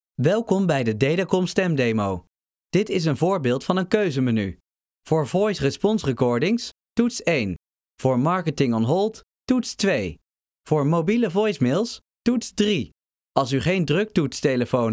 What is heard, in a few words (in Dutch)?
Voice over Nederlandse man